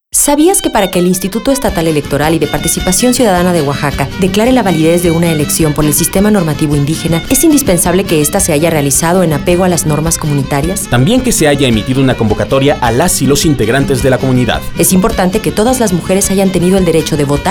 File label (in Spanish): Sistemas Normativos Indígenas esp